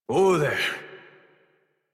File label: Horse Stop Walk Adult; Warframe; Duviri